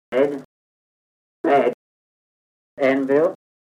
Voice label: kent farningham trap, accents